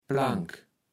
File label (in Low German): "Plank", kaevels platt